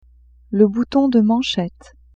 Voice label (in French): "bouton de manchette", French; vetements